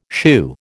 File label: "shoo", American